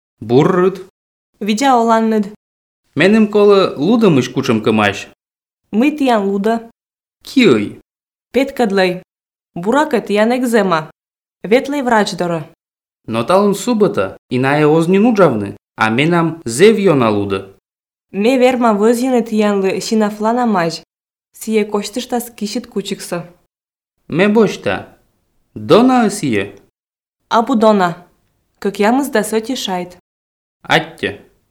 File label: Komi dialog apteka